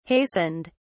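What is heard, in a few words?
"hastened", British English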